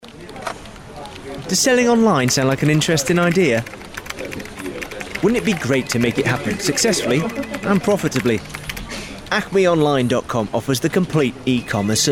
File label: Explainer Video